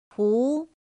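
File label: "pú", chino mandarin; pinyin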